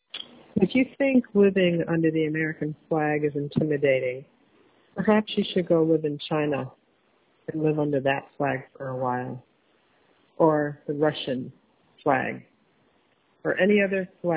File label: voicemail; threats against prog barre politician